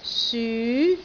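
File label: "syu2", Cantonese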